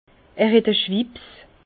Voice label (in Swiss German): "schwipps", Bas Rhin; vin